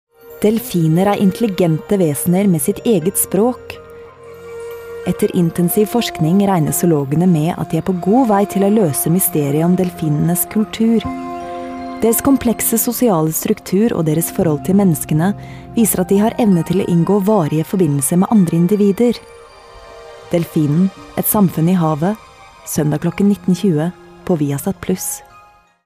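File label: Norwegian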